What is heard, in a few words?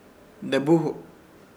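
"Debuhu", Chamacoco word, vivir; Ishir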